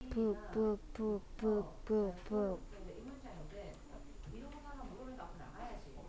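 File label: "book", spoken word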